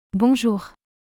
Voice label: French Hello formal; Greetings